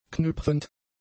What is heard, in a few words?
"knüpfend", German; adjectives